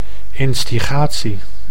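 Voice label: "instigatie", Dutch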